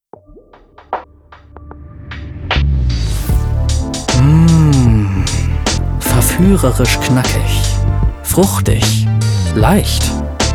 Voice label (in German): Eismagie Werbung